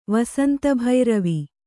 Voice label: "ವಸಂತಭೈರವಿ", Kannada